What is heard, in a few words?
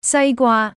slot fruits female